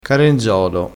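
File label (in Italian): "carenzolo", dialettale crespinese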